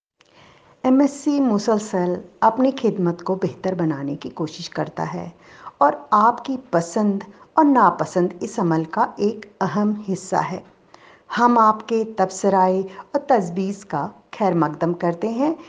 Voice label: Feedback Urdu